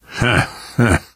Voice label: taunt, survivor voice